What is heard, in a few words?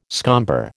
"scomber", American